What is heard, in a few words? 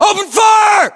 order attack infantry, US; localized english; battlechatter